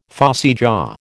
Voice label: "phossy jaw", American

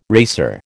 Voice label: "racer", American

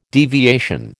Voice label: "deviation", American

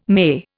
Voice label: "May", Indian

